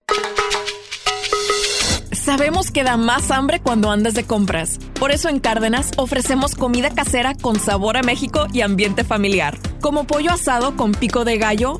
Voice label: SPANISH